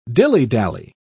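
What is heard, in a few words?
"dillydally", British English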